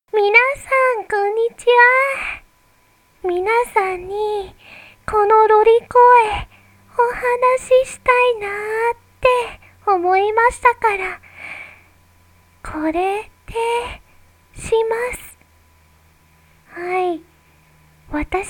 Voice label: F A Little Japanese Rambling Loli Little Voice Rambling